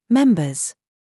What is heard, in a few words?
DRESS TRAP, minimal pairs